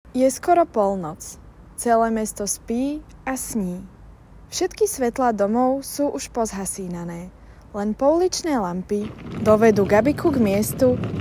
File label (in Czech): narace